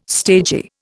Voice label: "stagy", Indian